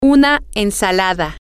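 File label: Spanish, female; a Salad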